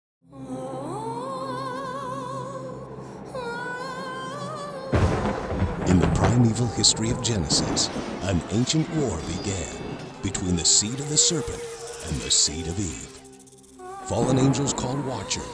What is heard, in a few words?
Commercial; Chronicles